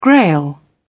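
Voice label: "grail", English, British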